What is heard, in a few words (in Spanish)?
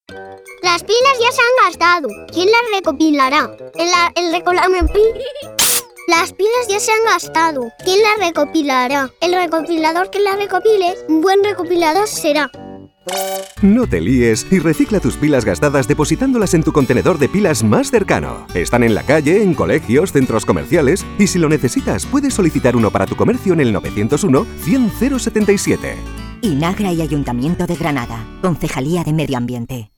cuña radio trabalenguas